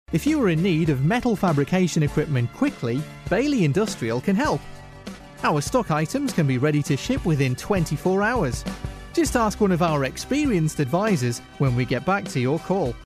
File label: Onhold Audio